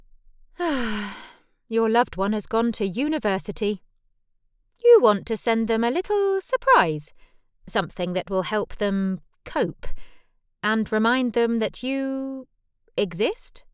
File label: english british explainer videos